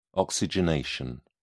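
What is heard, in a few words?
"oxygenation", British English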